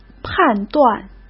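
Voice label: "判断", Mandarin; chinese-flashcards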